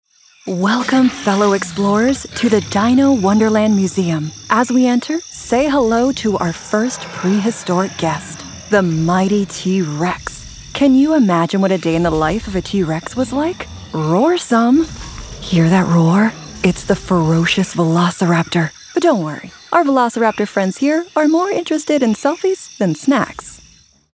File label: Narration MUSEUM; Female; English (North American)